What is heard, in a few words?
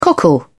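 "cockle", British English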